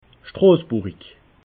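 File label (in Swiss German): "strossburig", Alsatian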